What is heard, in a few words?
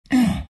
treant pain